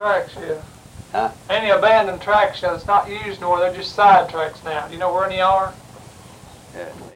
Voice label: Oral history with 89 year old male Whick Kentucky, american english dialect